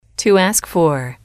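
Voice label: "to ask for", English, female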